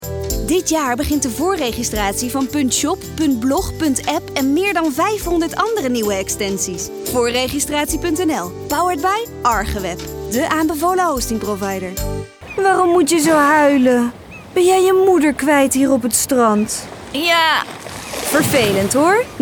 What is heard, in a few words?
commercial